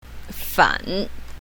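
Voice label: "fan3", pinyin, south, female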